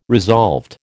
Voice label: "resolved", American